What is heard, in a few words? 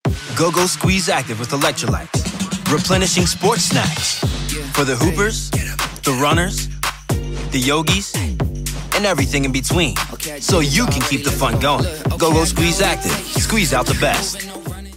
Voiceover Commercial Men